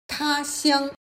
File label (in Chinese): "他乡", chino